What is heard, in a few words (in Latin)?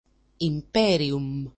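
"imperium", Latin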